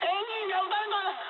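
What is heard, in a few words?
Japanese damaged engine mood high; War Thunder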